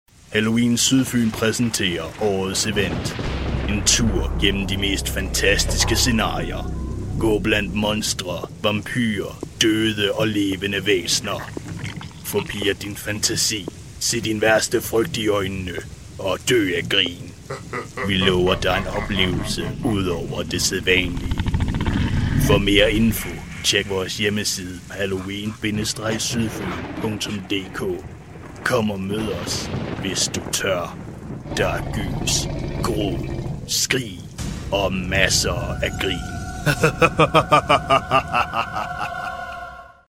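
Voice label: danish male commercial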